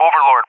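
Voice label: "Overlord", English, USA; CallSign; DCS; Allied Flight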